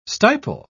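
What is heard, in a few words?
"stipel", English, British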